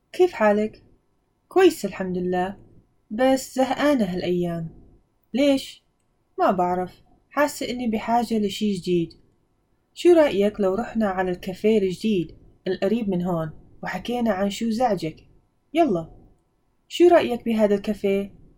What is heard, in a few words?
convo two Levantine